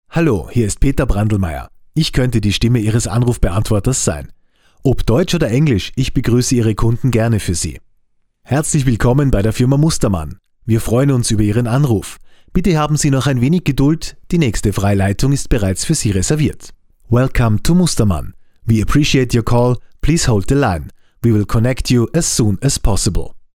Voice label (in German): Sprecher Telefonansagen